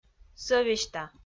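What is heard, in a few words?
"сӧвесьта", Komi, female; audio dict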